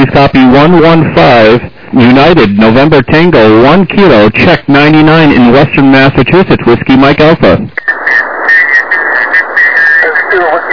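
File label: lower sideband 7MHz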